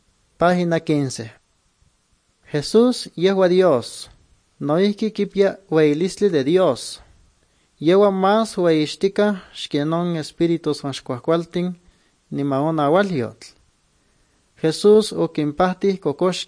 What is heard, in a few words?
good news, nahuatl guerrero chilapa; guerrero nahuatl the bible league nt drama